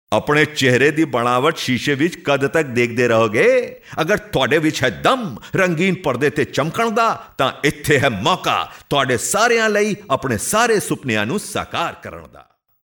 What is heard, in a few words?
HI M commercial